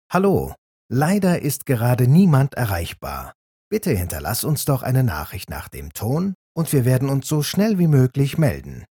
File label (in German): Ansage